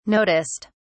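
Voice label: "noticed", British English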